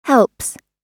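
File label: "helps", American English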